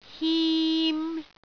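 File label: "him3", Cantonese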